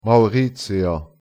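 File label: "mauritier", Luxembourgish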